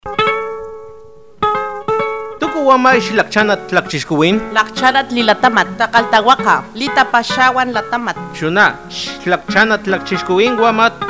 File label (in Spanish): Lengua Totonaco; FI Papantla; Derechos Humanos y Defensores de DH